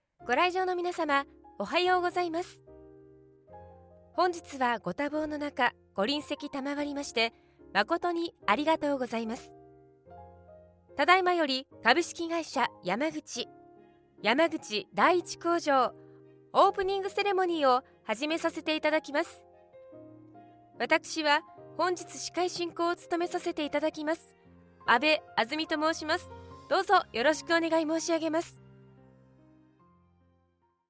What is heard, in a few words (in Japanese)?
開式挨拶（普通・BGMあり）